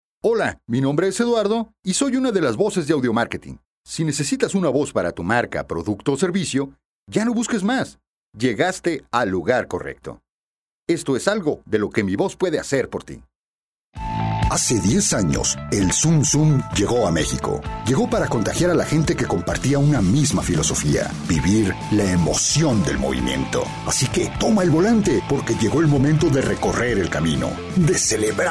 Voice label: Mexico, Locutores; Audiomarketing

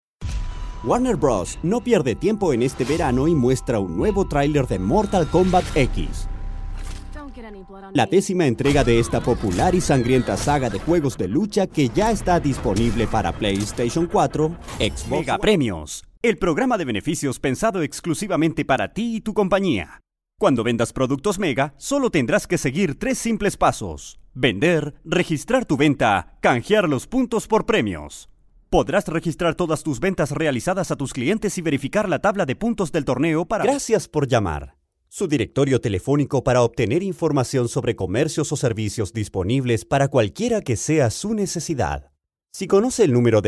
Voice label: Locutor chileno

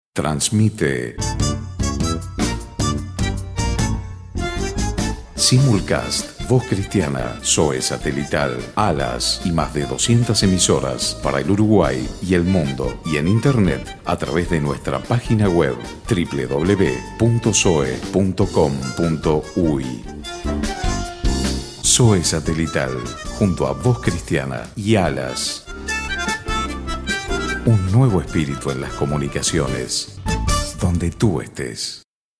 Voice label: Locutor uruguayo

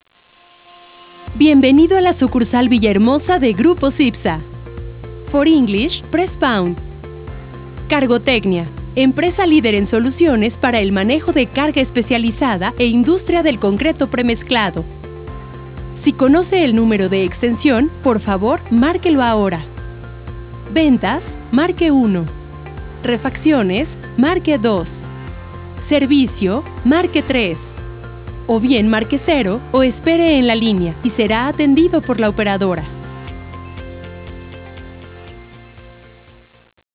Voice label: IVR espanol femenino

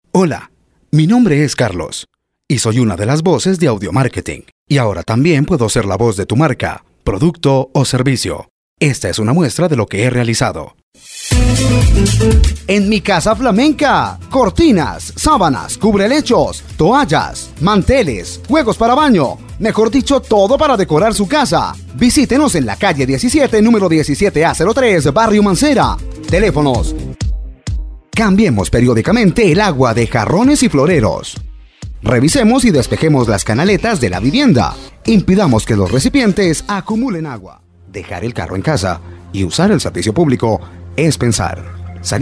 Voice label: Audiomarketing Colombia, Locutores

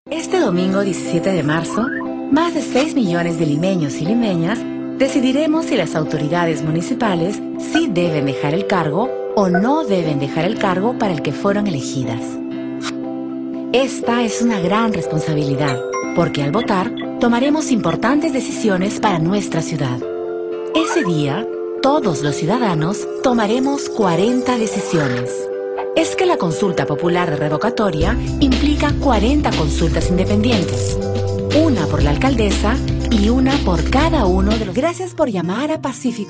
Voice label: Locutora Peruana

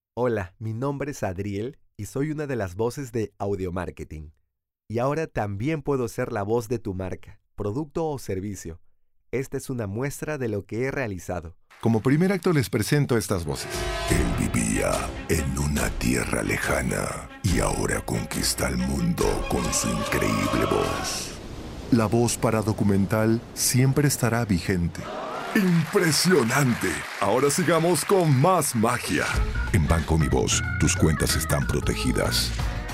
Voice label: Audiomarketing Peru, Locutores

